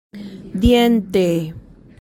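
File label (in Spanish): "diente", Spanish; las partes del cuerpo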